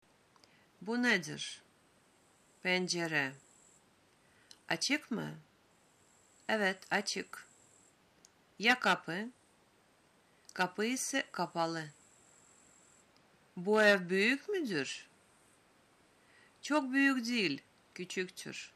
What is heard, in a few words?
dialog